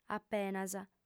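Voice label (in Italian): "apenas", lingua sarda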